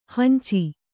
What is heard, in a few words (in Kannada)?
"ಹಂಚಿ", kannada